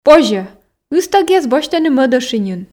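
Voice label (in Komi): позьӧ ыстӧгъяс босьтӧны мӧд ӧшиньын, Komi, female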